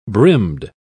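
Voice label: "brimmed", British English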